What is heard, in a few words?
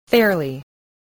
"fairly", voice